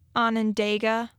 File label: "Onondaga", Michigan pronunciation